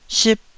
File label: "sip4", taiwanese Syllables